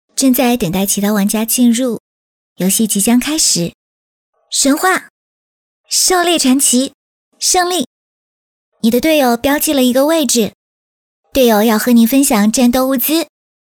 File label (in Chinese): 动漫